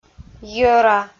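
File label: "йӧра", Komi, female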